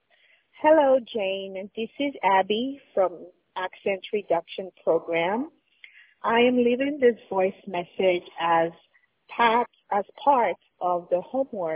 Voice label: voicemail testimonial